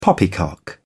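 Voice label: "poppycock", British English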